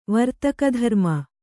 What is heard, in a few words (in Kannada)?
"ವರ್ತಕಧರ್ಮ", kannada